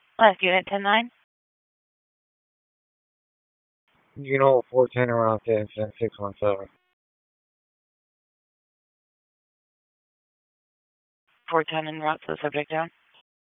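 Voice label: Police Dispatch; Raleigh Police Southeast District Disp; wake; p25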